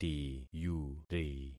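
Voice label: "tiyuri", concatenated syllables; Affixing experiment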